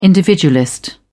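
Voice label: "individualist", British English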